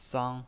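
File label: "sang", Mandarin Chinese pinyin voice, first tone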